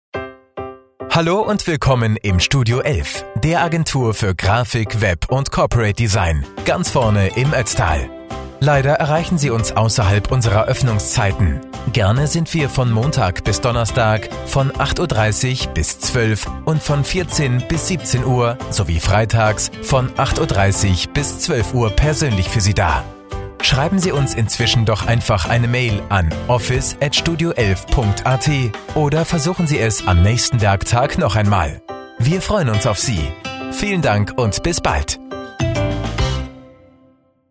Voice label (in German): Nachtansage; Studioelf; telefonansagen sonstige